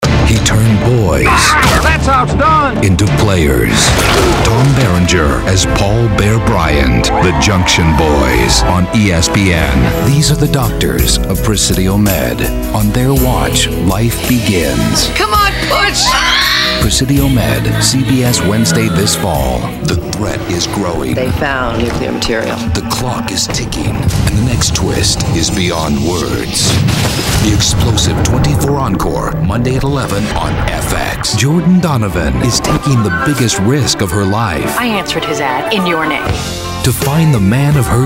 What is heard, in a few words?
promo men